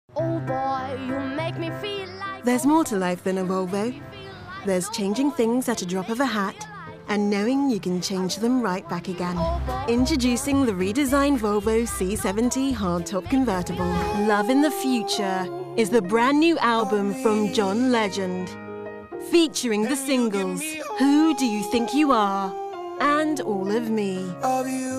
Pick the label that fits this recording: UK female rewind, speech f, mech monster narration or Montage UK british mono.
Montage UK british mono